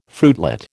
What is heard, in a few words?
"fruitlet", American